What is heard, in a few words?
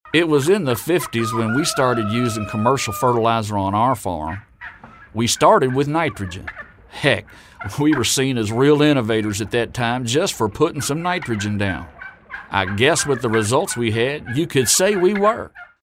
southern